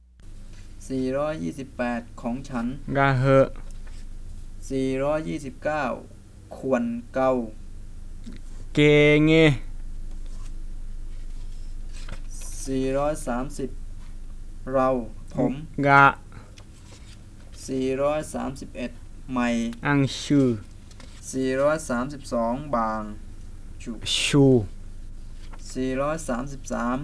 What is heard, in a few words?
Bisu or Misu Wordlist; 1-500